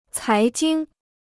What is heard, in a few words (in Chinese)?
"财经", Chinese